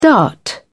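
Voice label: "dart", English, British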